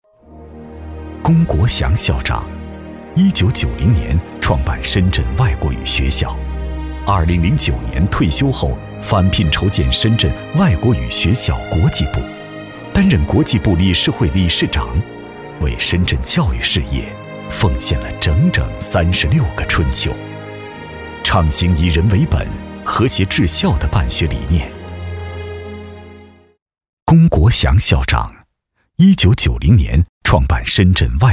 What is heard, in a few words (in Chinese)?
男 颁奖专题